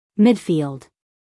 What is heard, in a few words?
"midfield", US female; 1000 hours